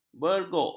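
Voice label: "berguk", Malay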